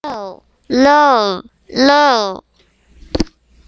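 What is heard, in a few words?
"low", spoken word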